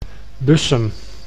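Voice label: "Bussum", Dutch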